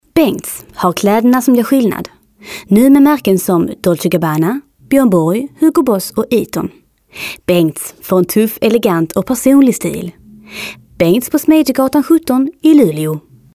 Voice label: rostprov skanska; dialekter

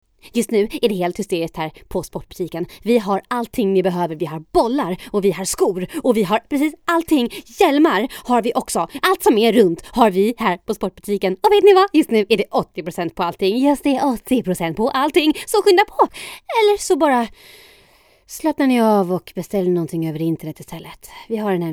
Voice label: rostprov reklam snabbsnackaren; reklamochspeaker

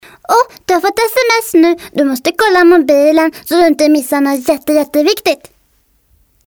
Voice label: rostprov flicka ca 5 ar; barnroster

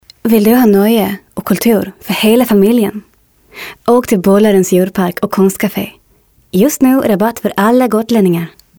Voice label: rostprov gotlandska; dialekter

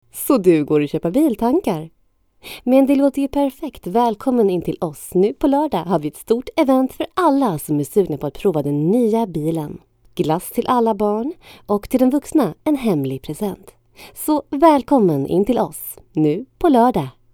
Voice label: reklam; reklamochspeaker

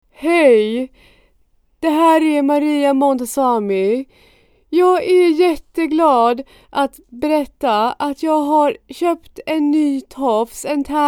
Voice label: rostprov imitationer; maria montazami

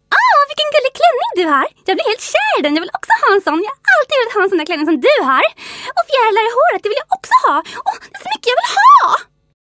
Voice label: pipig tjej; karaktarsroster

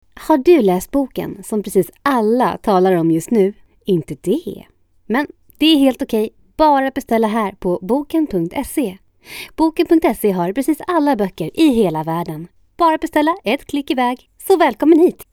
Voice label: reklam; reklamochspeaker

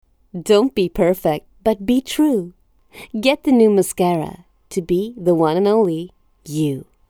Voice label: rostprov amerikansk engelska; dialekter

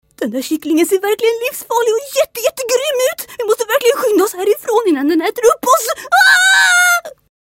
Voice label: liten rädd kille; barnroster

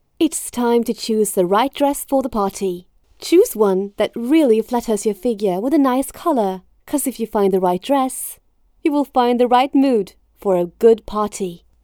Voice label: rostprov brittisk engelska; dialekter

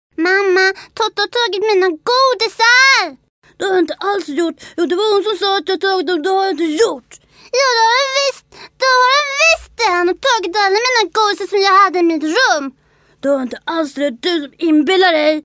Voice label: rostprov brakande syskon flicka och pojke; barnroster

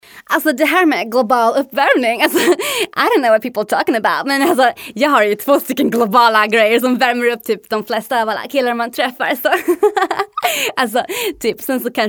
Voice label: rostprov imitationer; victoria silvstedt

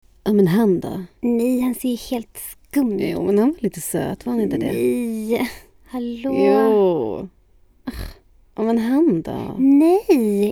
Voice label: rostprov 2 systrar kollar datingappar; reklamochspeaker

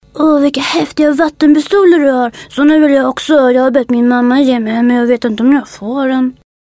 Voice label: rostprov liten pojke; barnroster

